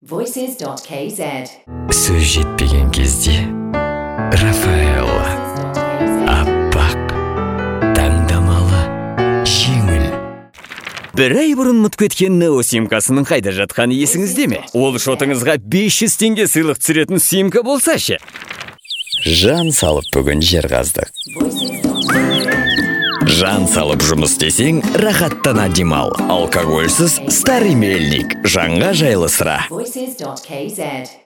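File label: Kazakh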